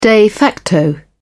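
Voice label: "de facto", en, British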